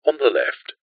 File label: technology sat nav voice male uk says on the left